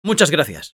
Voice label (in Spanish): Spy thanks, es; Muchas gracias.